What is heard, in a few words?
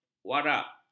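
"warak", Malay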